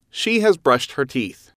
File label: she has brushed her teeth, English